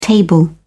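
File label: "table", British English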